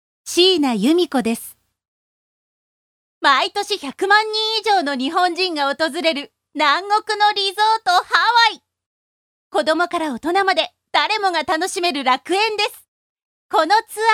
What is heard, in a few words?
narration